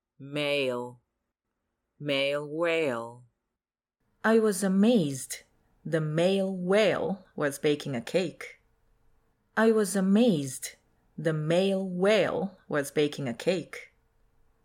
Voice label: male